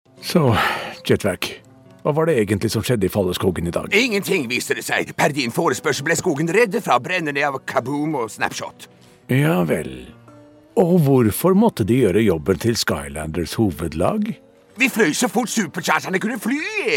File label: norwegian male, cartoon characters